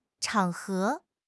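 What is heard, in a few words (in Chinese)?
"chang3 he2", Chinese; 汉语口语新时代-初级下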